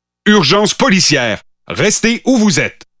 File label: Pathfinder French Canadian